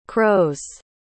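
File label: "crows", English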